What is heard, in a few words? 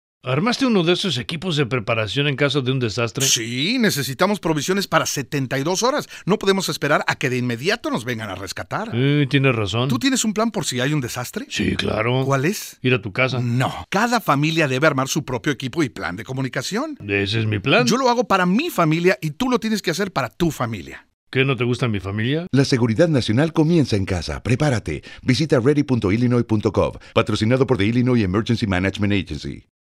radio campaign your house spanish